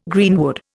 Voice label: "greenwood", Indian